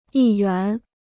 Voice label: "议员", Chinese